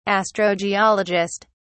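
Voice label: "astrogeologist", British English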